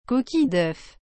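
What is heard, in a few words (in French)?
"coquille d'œuf", French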